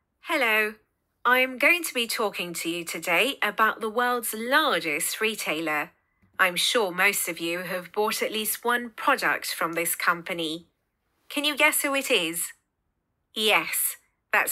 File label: Lecture